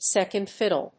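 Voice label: "second fiddle", English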